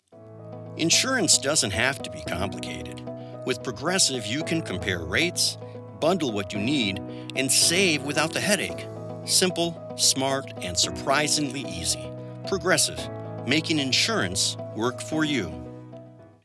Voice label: Radio professional; Progressive